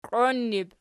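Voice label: "qioinnur" plural, Archi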